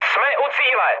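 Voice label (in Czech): Czech near waypoint, mood medium; War Thunder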